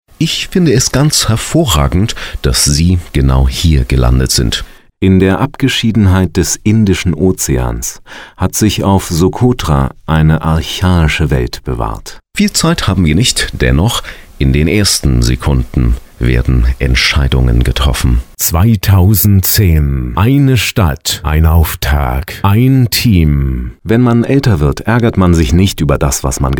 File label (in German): hoerspiel